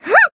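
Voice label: attack, Japanese; leviachan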